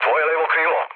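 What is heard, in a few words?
Serbian left wing mood low, speech; War Thunder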